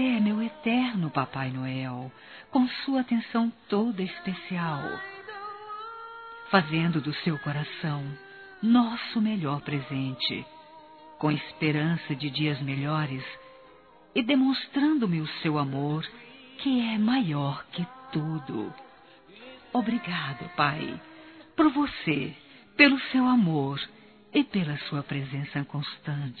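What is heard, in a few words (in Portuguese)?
Natal para pai feminino, Telemensagens; Natal e Ano novo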